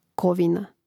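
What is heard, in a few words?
"kovina", Croatian; Mrežnik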